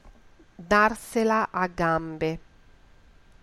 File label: "gambe", Italian